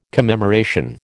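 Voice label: "commemoration", American English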